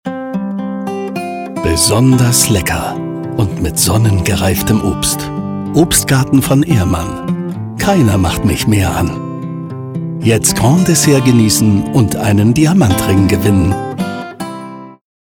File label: VoiceOver